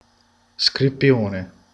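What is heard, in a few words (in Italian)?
"serpe", Italian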